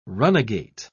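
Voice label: "runagate", British English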